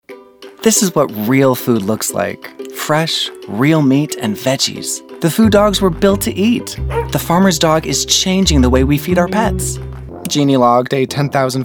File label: Commercial